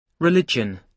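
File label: "religion", British English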